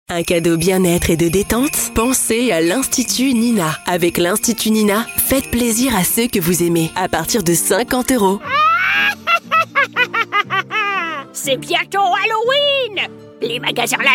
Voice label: french campaign voice commercial